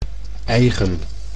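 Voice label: "eigen", Dutch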